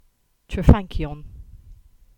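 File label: "Crankan" Cornish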